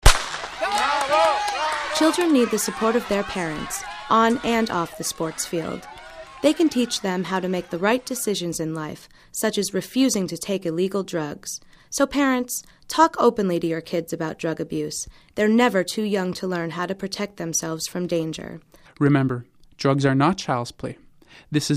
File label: English